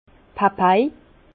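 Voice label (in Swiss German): "papai", Alsace Bas Rhin; vin; papaye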